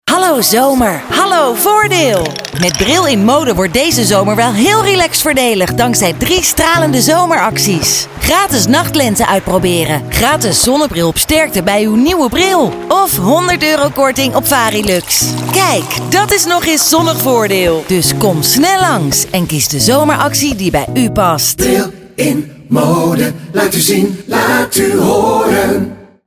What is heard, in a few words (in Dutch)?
radiospot; hallo voordeel